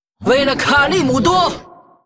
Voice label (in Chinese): hero female demon hunter morphed war cry